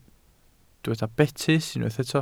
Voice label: "betys", Welsh

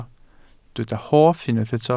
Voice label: "hoff", Welsh

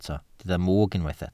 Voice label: "mwg", Welsh

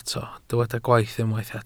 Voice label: "gwaith" Welsh

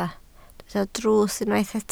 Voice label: "drws", Welsh

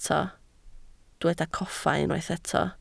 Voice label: "coffa", Welsh

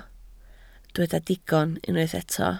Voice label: "digon" Welsh

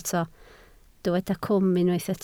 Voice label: "cwm", Welsh

